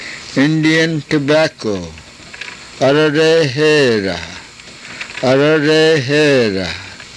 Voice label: "arareeheera", karuk